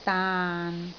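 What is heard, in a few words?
"daan6", Cantonese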